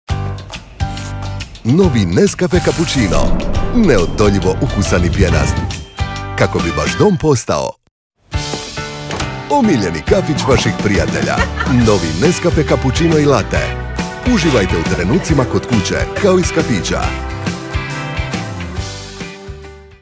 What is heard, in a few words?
croatian commercial; professional Croatian voice-over artist